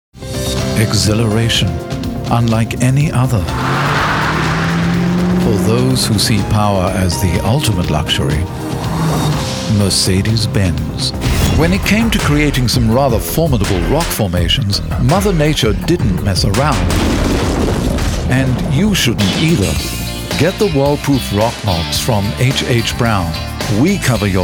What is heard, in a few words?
voice overs; My Voiceover Reel